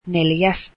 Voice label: finnish fourth

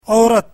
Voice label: urdu woman

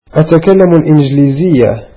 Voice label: arabic I speak English

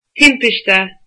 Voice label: accident, irish

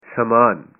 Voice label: "luggage", punjabi

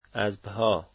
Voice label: "horses", Dari

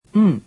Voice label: japanese alphabet "n"

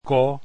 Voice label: "k", Esperanto alphabet

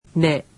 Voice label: "ne", japanese; alphabet

